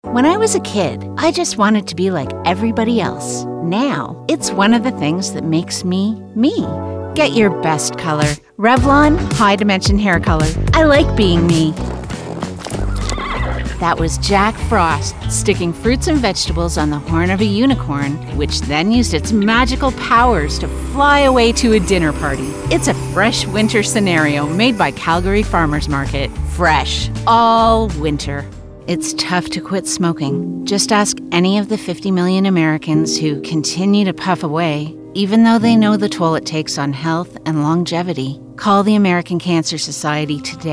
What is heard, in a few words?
Commercial